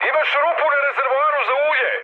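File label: Serbian damaged oil mood high; speech; War Thunder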